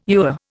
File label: "ewer", Indian